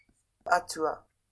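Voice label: "atua", Maori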